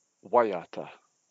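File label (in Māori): "waiata", Māori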